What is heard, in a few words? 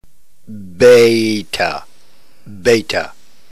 "beta", ntgreek alphabet